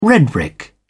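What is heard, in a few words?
"red-brick", British English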